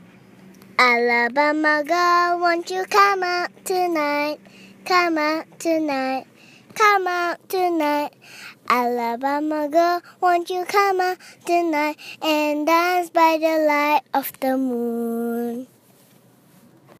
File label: Alabama Girl